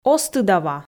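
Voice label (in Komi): оз тыдав-а, Komi, female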